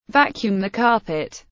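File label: "vacuum the carpet", English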